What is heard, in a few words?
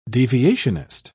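"deviationist", British English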